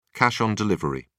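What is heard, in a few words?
"cash on delivery", British English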